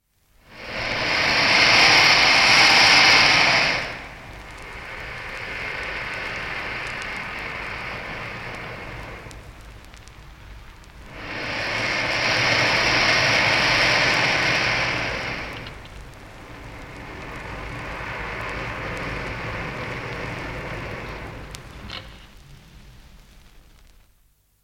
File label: american; bbc